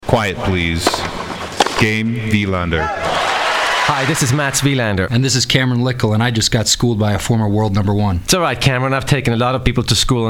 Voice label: Radio Commercial